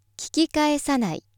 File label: female